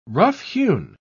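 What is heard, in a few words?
"roughhewn", British English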